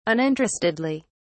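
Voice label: "uninterestedly", British English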